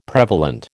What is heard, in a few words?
"prevalent", American